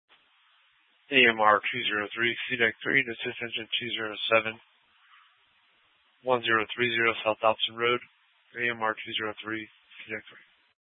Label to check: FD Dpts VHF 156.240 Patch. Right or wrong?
Right